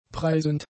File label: "preisend", German; adjectives